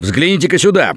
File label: look here, russian; Gambler